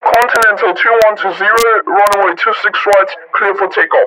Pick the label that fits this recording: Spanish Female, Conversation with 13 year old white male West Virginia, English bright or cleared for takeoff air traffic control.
cleared for takeoff air traffic control